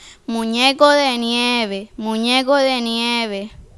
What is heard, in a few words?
snowman spanish; Muñeco de nieve